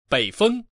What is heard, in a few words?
north, voice man